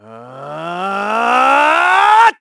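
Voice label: Vox Casting, Korean; KaselB; kingsraid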